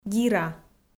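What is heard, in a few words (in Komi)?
"гира", Komi-Zyrian, female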